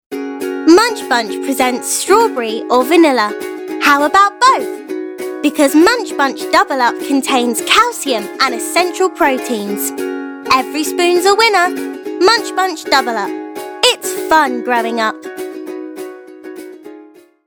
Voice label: Voiceover